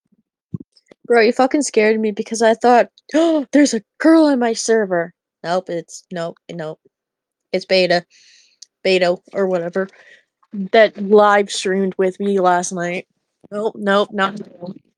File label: voice message; Discord; Outcast Gaming